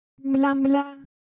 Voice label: Ewe, ideophones; Color Visual